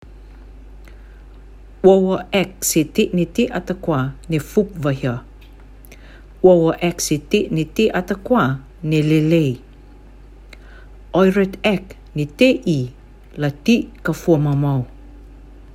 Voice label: rotuman